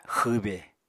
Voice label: "xwbe", Apatani